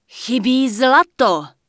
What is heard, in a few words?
Human Female err not enough money; wowpreklad; czwow; FemaleErrorMessages